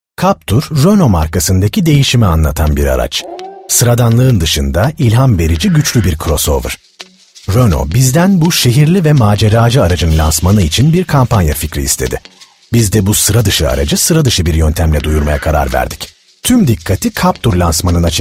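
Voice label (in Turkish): reklam, voiceover for commercials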